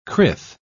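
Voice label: "crith", British English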